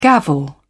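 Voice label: "gavel", British English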